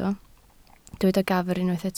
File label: "gafr", Welsh